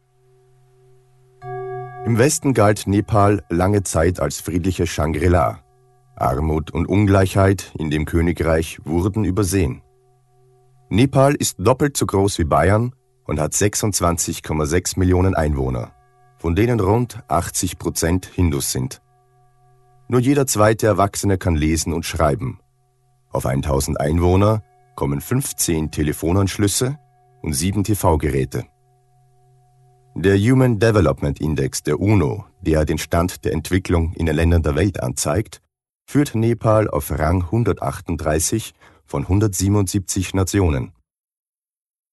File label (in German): Doku; Nepal